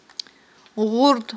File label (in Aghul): "гъурд", aghul